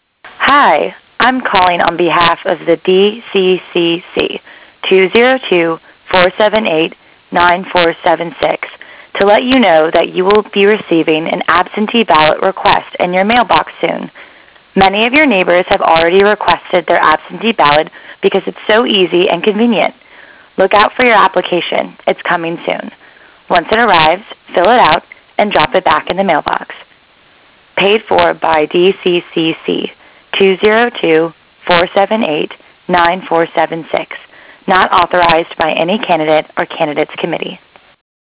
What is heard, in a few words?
voicemail